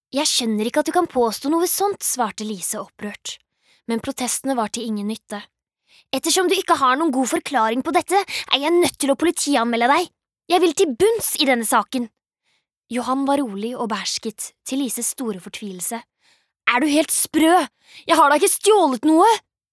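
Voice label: Norwegian audio book, female; norsk; kvinne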